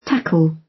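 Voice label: "tackle", British English; Time, history; Thời gian, lịch sử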